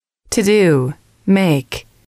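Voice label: "to do make", English, female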